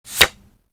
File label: card smug